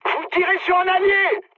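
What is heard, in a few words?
player friendly fire, mood high, French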